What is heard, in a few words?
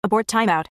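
English Abort Timeout; EFI Audio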